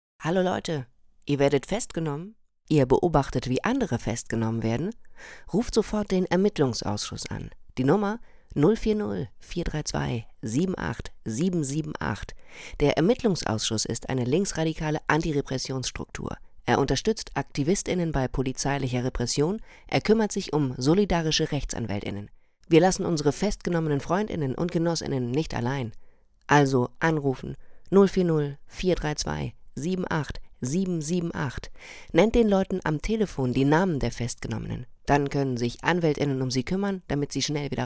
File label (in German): deutsch